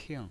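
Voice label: "ping" fourth tone, pinyin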